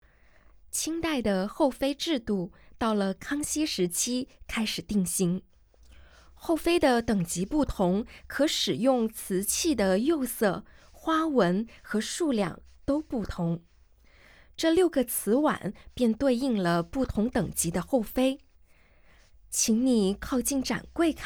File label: Mandarin, audio guide